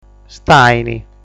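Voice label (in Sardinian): "Stàini", Sardinian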